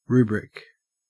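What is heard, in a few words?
"rubric", Australian English; pronunciations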